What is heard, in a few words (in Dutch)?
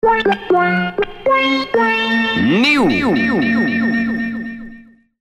Jingle nieuw met stem; Lex Harding; Veronica